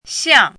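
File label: "xiang4" Mandarin Chinese, falling tone; chinese-voice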